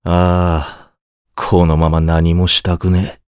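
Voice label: voice dia, voices heroes, Japanese; Mitra; kingsraid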